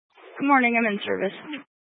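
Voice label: Sheriff Dispatch, Scanner audio recording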